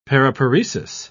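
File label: "paraparesis", British English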